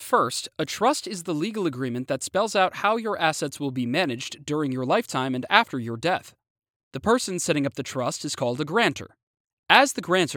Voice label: Narration Spot

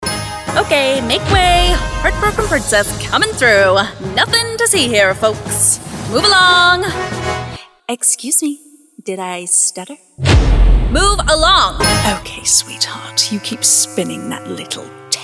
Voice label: Animation

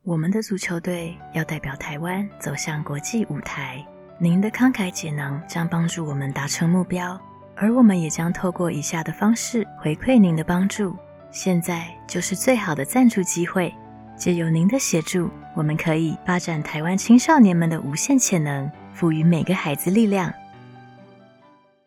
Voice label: emotional; female voice in Mandarin Chinese

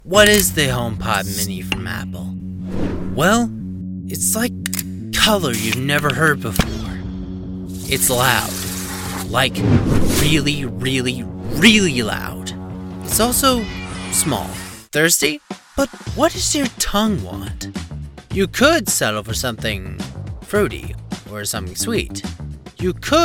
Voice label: Commercial

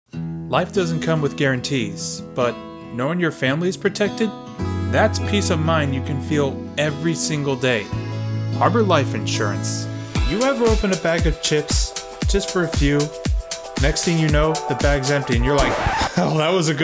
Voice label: Commercial

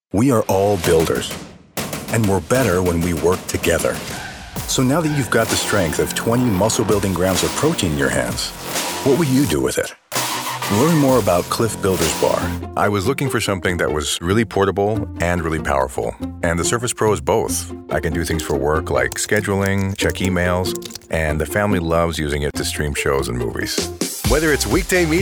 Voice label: Commercial

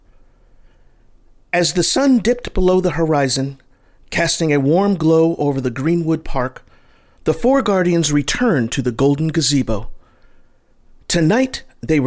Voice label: audiobook narration

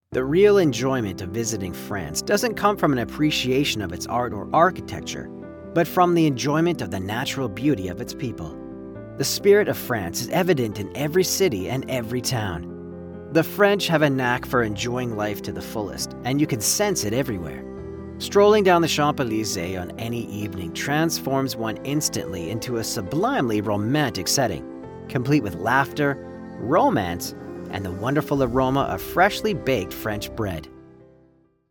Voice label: Ad w music; France

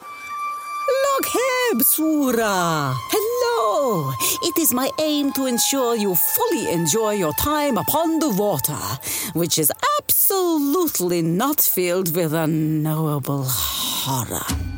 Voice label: Video Game Character (Quirky, Humourous); Warframe

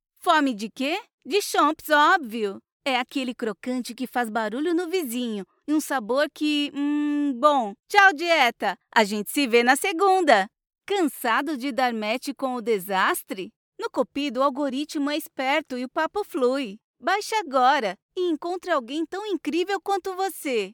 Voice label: Funny Fresh Positive

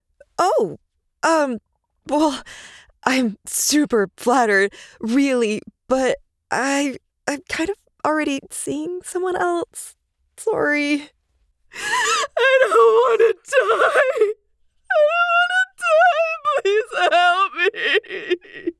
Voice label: Character Samples, female voice actor

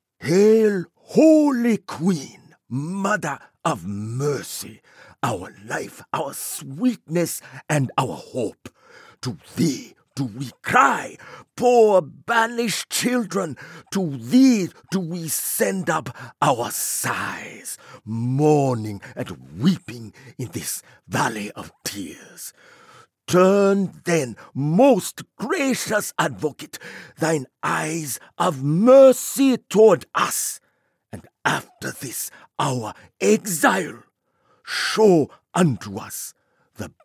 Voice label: Prayer African; Mother of Mercy

